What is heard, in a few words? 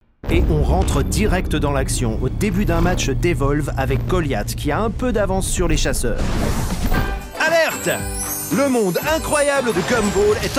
French Commercial